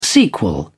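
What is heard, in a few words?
"sequel", British English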